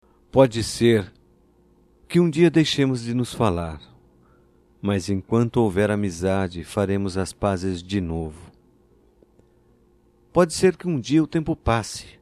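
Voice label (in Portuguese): DIA DO AMIGO, masculino; Telemensagens; Só Voz; DATAS COMEMORATIVAS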